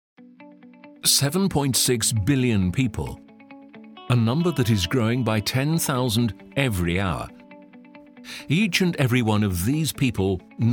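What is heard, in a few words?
British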